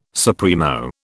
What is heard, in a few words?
"supremo", British